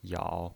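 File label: "yao" Mandarin Chinese pinyin voice, third tone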